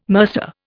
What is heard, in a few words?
"mercer", Indian